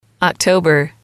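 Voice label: "October", English, female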